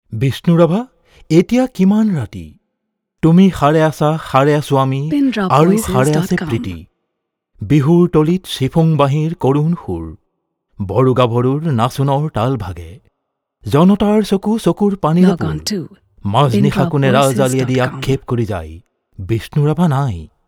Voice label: Assamese